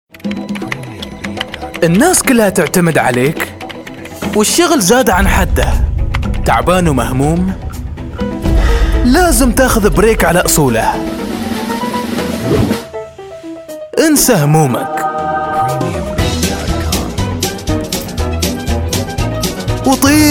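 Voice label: Arabic, Commercial, Saudi Accent; GoLocalise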